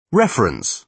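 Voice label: "reference", British English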